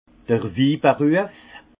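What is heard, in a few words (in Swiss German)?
"wiberuef", Alsace Haut Rhin; les métiers du vin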